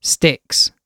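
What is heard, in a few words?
"sticks", British English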